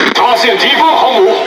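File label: Speech Detection Enemy Aircarrier, Chinese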